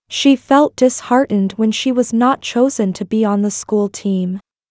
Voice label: Sad